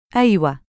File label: "aiwa", Egyptian Arabic